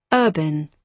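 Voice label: "urban", British English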